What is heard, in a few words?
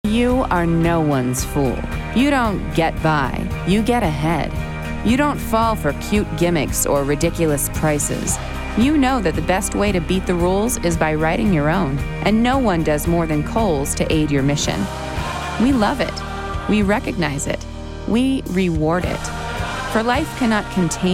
Narration